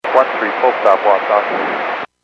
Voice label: Airports 122.7, Radio; KSTE

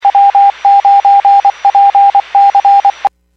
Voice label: Skywarn 146.67; Stevens Point

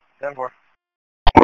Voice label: Police Sheriff Dispatch

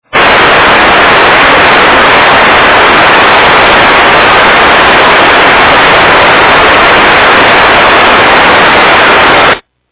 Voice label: EMS, radio

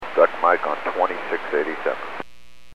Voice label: Aviation 121.5 Emergency

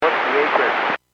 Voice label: Aviation 121.5 Emergency; Radio

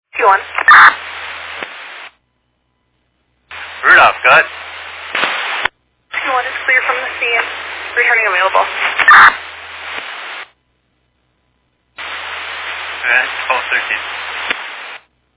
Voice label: Fire Dispatch

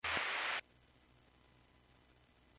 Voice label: Fire Dispatch

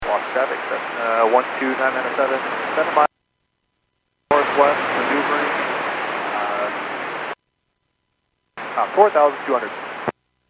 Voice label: Airports 122.7, Radio; KSTE

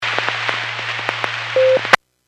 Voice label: Skywarn 146.67; Stevens Point

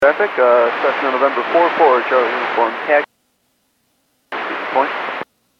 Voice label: Airports 122.7, Radio; KSTE

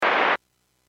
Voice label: Aviation 122.7; KSTE

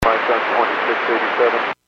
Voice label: Airports 121.5 Emergency, Radio

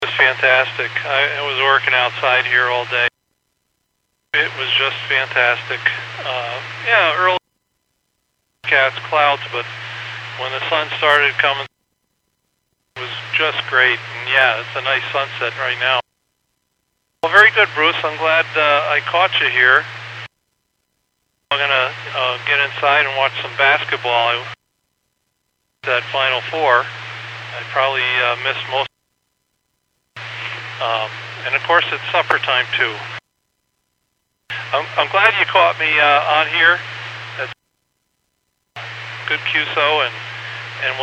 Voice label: Skywarn 146.67; Stevens Point

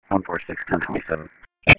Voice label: Police Sheriff Dispatch

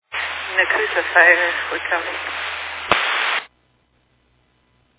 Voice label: Fire Dispatch

